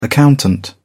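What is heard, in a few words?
"accountant", British English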